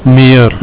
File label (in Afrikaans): "meer", Afrikaans